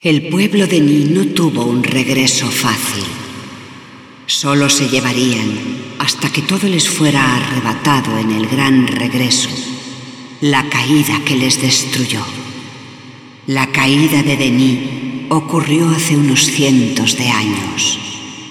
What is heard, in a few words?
Speech spa; Yeesha; moul-assets